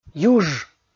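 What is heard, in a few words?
"юж", Komi, male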